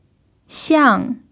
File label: "xiang4", Chinese pinyin